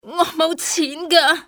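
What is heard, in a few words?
"no money", female; XBOX; civ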